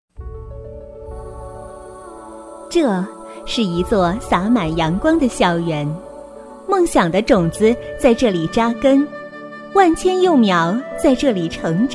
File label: Mandarin female